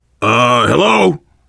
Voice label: world farmhouse npc, voice, biker; Left 4 Dead 2; survivor